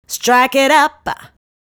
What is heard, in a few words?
"Strike It Up", vocal phrases; Ultimate Vocals